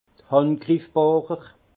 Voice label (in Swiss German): "handgriffbohrerl", alsace; vin; mèche à élargir